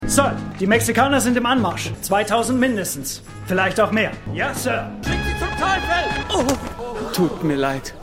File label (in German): Texanischer Aufklaerer Zwischenrufer Soldat; Lebe Larry